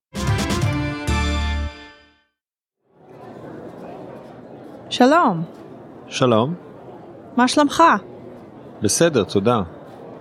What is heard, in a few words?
dialog; hpod101